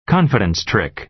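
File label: "confidence trick", American English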